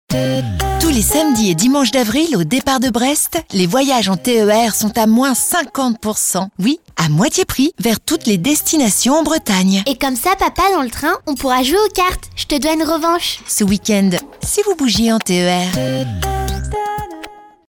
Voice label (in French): signature decidee tranquille, voix off comédienne; SNCF Ter